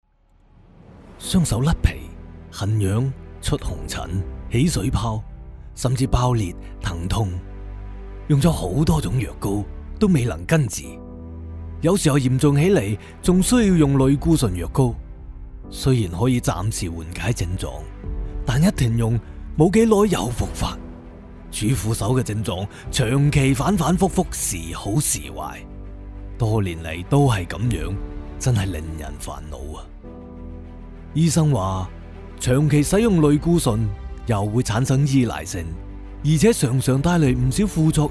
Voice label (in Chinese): 粤语方言低沉磁性广告男